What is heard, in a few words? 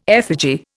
"effigy", Indian